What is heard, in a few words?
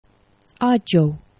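"aĝo", Esperanto; geneva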